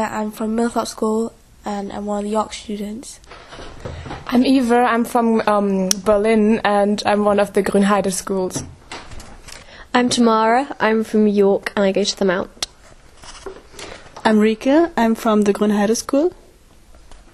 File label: UK German